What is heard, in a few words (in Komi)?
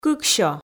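"кыксё", Komi, female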